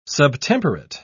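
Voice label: "subtemperate", British English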